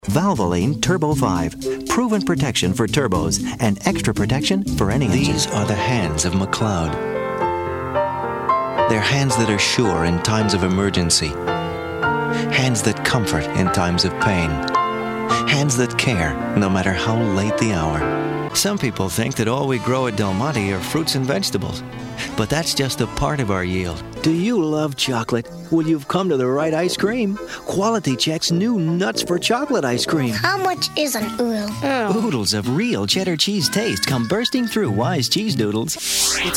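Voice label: commercial, Voiceover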